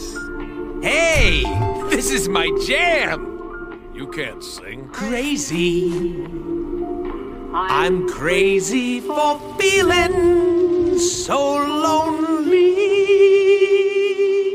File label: crazy; deadpool